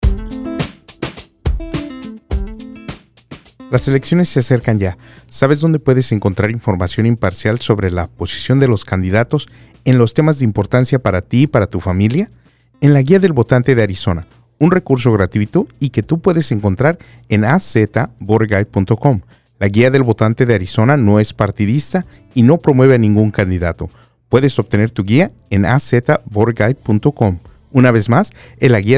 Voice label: Spainsh AD